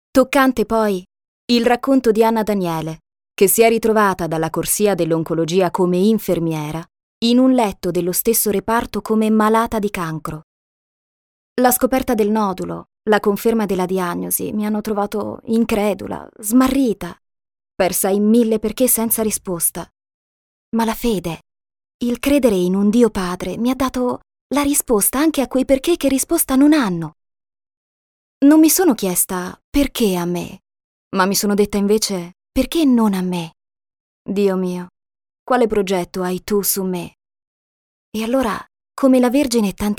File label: documentary, demos; voxpop